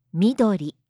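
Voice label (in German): "Midori", japanische Mädchennamen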